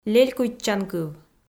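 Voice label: "лелькуйтчанкыв" Komi, female; kpv audio dict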